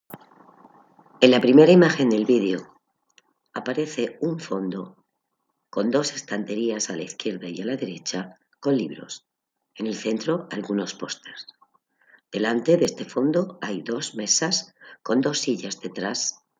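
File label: count to five in french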